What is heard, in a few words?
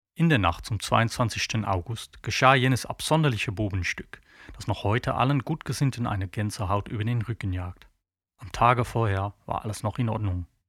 Language German Book